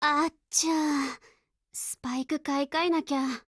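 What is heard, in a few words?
BREAK, Japanese, Voice; Lusa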